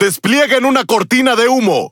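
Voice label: Spanish Speech; Quick Commands Need Smoke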